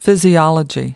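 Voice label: "physiology", US English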